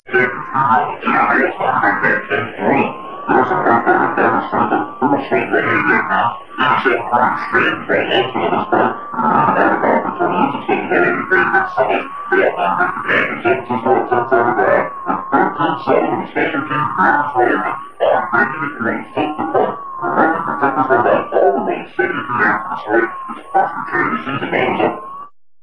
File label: muted alien robot voice; Robotic or Stuttery or Spooky